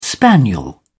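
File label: "spaniel", English, British English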